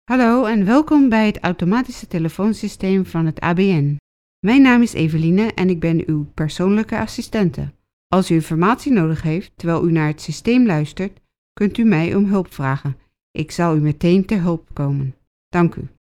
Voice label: Dutch; קריינות

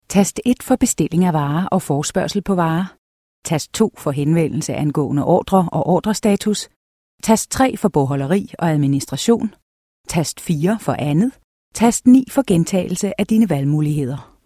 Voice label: Danish; קריינות

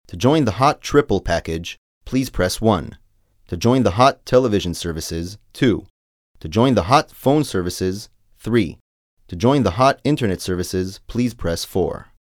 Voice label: Eng; קריינות

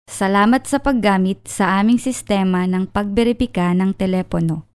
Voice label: tagalog; קריינות

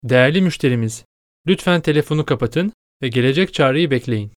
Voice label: Turkish; קריינות

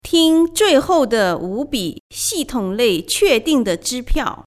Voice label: Mandarin; קריינות

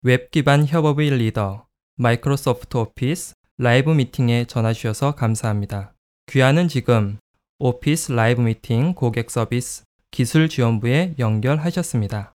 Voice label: Korean; קריינות

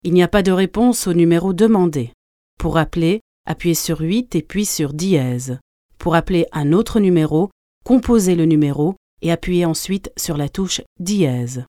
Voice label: Fr; קריינות

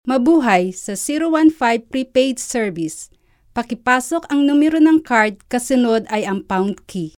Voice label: Tagalog; קריינות

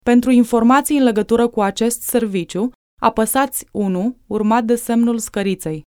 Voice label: Romanian; קריינות

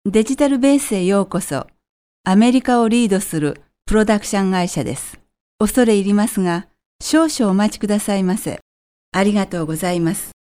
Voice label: Japanese; קריינות

